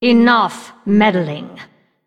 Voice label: Female Undead; Anduin; Emote Response

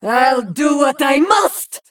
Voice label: Female Broken Attack

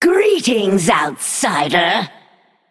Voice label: female naga greetings

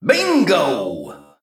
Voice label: Male Mech After Triple

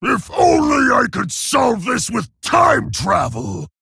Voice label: Male Orc Emote

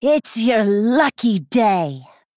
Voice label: Female Blood Elf Play

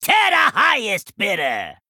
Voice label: Male Goblin Attack

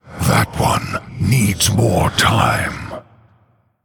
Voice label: Male Human ERROR SUMMON SICKNESS

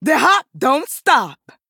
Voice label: Female Troll Attack

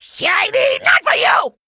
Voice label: Male Primitive Goblin Attack

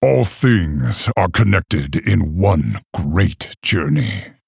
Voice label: Male Orc Picked

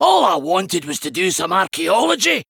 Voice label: male dwarf, Boss Idle

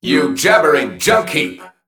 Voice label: Male Mech Attack

